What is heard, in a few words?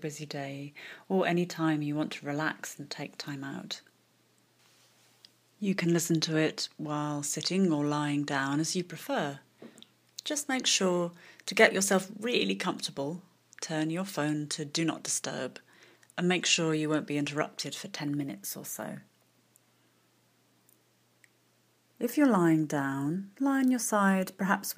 Heart womb and baby guided meditation